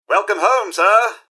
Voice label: robots dialog hello; mrhandy; npc